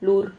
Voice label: "lur", Silabas

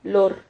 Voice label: "lor", Silabas

